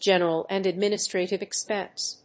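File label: "general and administrative expense", English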